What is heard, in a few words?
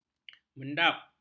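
"mendap", Malay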